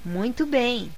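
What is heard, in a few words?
congratulation, Scottish Gaelic; gcompris-data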